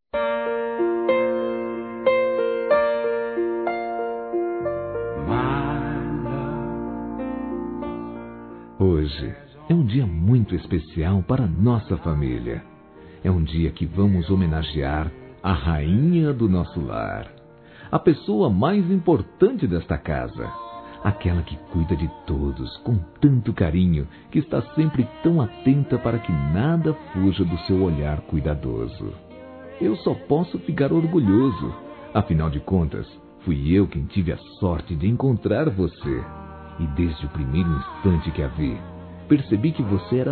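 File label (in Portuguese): ESPOSA, male, Telemensagens Dia das Mães; Masc; Telemensagens 2017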